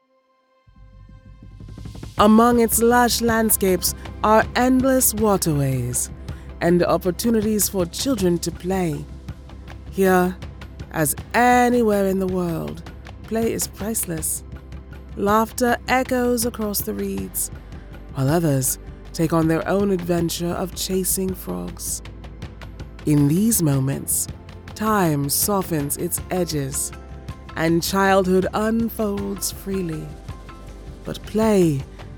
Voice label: West African Inflected Poem, Natural Speak, African-American, Female Voice Over; Ivory Coast